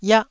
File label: "iah1", Taiwanese syllable